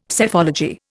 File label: "Psephology", Indian; Dictionary